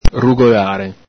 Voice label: "rugolare", Venetian

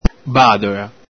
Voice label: "badola", Veneto

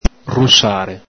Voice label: "rusare", Venetian

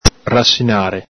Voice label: "rasinare", Venetian

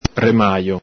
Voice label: "remajo", Venetian

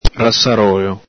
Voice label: "rasarolo", Venetian

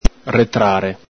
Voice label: "retrare", Venetian

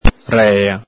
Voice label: "rela", Venetian

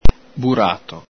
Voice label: "burato", Veneto

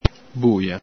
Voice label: "buia", Veneto

